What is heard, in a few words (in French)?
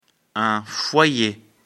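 "foyer", French; afrikalan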